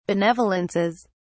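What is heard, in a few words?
"benevolences", English, British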